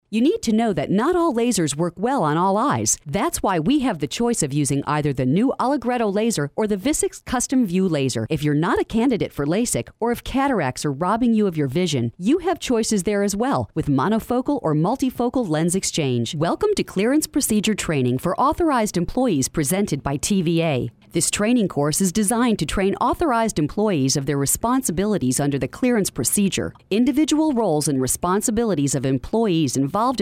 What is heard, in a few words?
narration voiceover